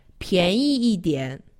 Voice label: "pianyi yidian", Chinese; Survival Chinese